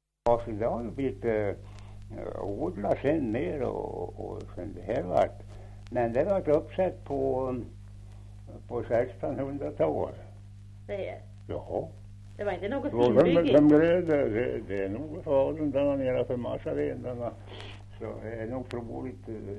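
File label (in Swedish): Kopparberg Västmanland, dialekter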